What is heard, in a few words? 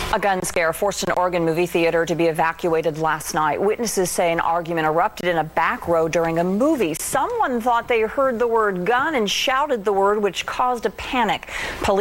Shouting GUN; Slave Training